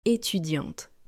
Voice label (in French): "étudiante" French